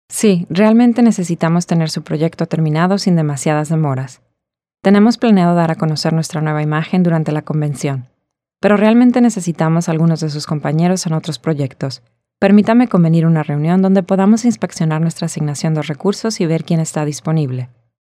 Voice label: Spanish Character; Voice Samples; Foreign Language

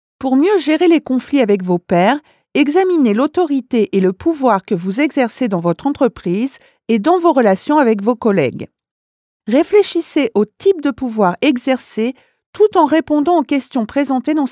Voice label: French, Voice Samples, Foreign Language

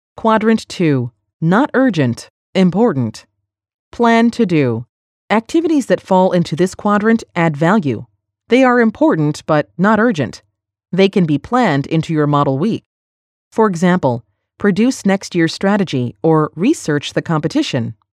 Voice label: urgent model; Metlife

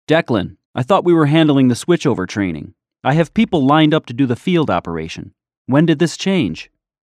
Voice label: Male Character, VO; Potential Male VO Artists

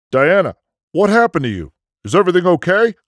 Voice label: Professional Male Voice; Global Mental Health; Facilitating Posivite Mental Health

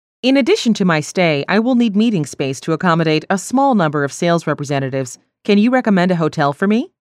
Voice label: Character, Voice Samples

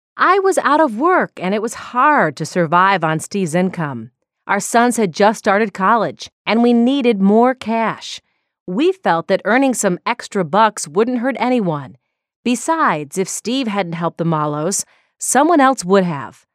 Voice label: Character, Voice Samples

